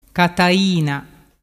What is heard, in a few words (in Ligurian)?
"cataina", dialettu